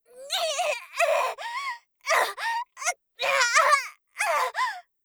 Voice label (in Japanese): 刺され, ASMR; 効果音なし